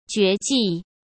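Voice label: "绝技", Mandarin Chinese; chinese flashcards; hsk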